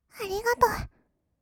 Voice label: ありがとう, kawaii voice; ASMR; 色々なワンショット

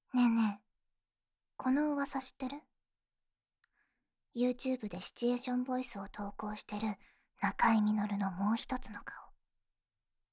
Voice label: フリートーク

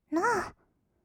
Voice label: "ノー", kawaii voice; ASMR; 色々なワンショット

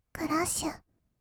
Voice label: "クラッシュ", kawaii voice; ASMR; 1200 kawaii voice!!; 音ゲー

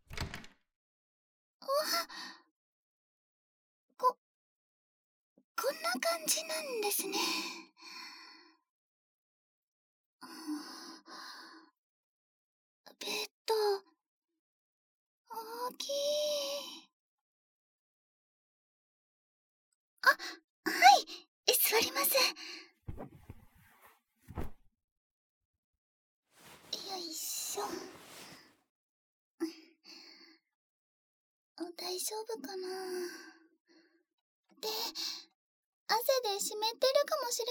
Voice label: ささやき体験♪; ASMR; 芽依のちょっぴり大胆なドキドキわくわく

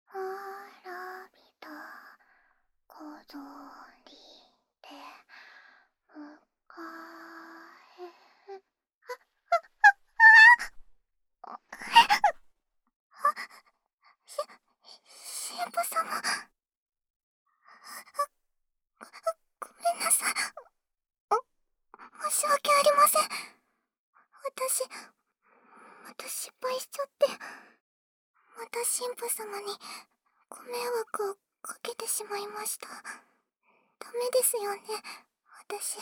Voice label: ボイスオンリー, ASMR; アンナに教育していただけますか？

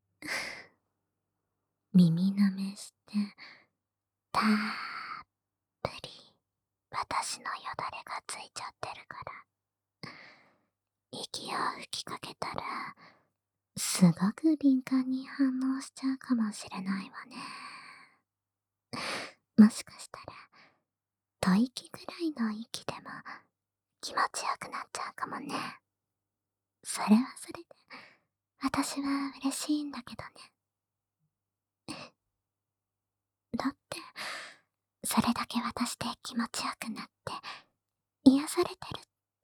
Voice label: 吐息混じりで慰められながら耳舐め（SE無し）

